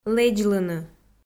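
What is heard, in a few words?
"лэдзлыны" Komi, female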